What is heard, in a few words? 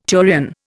"turion", Indian